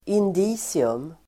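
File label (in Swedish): "indicium", Swedish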